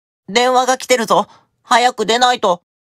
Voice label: Call Notification Voice; Luke